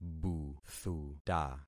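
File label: "buthuta", concatenated syllables; Affixing experiment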